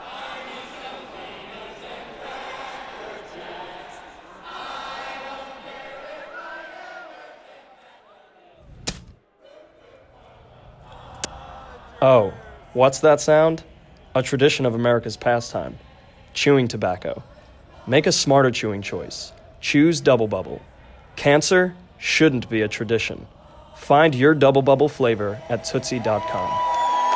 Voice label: radio ad